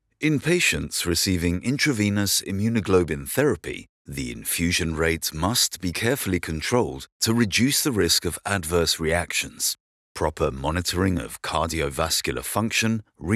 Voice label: e-Learning medical; demo